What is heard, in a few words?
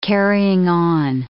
"carrying-on", English